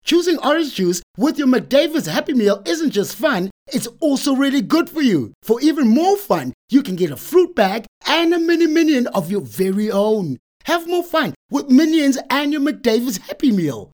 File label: English bright; OSM Talent